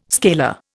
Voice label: "scalar", Indian

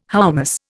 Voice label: "hoummos", Indian

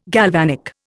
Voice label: "galvanic", Indian

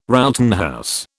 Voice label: "Rowton house", British

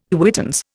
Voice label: "quittance", Indian

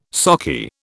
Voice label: "sockeye", British

